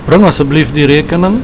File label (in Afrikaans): "bring asb die rekening", Afrikaans